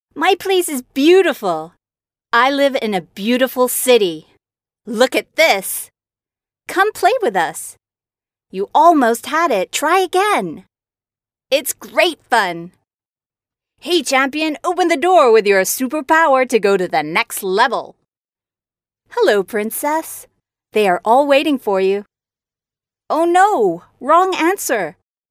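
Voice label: American English female children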